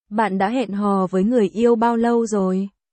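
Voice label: Vietnamese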